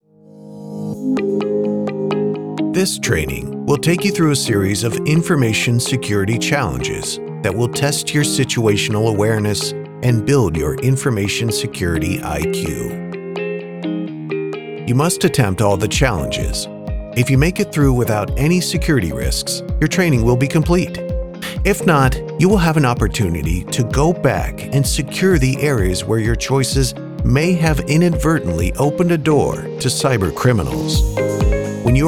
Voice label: E Learning